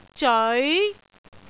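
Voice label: "zeoi2", Cantonese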